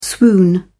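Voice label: "swoon", British English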